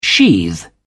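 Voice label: "sheathe", British English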